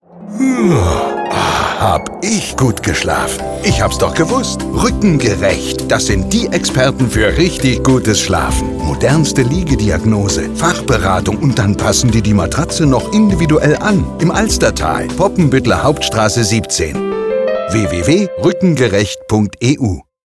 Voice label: Radiospot